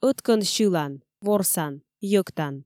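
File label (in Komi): ӧткӧн сьылан ворсан йӧктан, Komi, female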